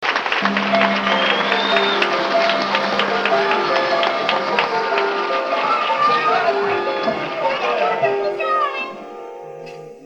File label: Wedding dialogue